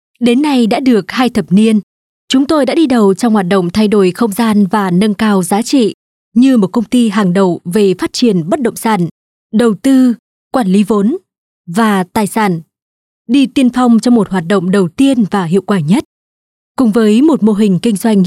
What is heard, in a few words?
North Female, North Vietnamese; Mapletree